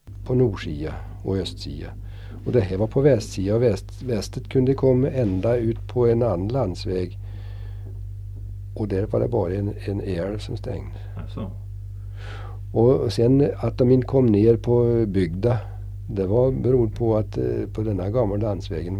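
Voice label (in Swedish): Östmark Värmland, dialekter; Svenska sagor; Folkminnen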